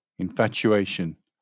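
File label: "infatuation", British English; pronunciations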